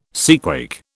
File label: "seaquake", British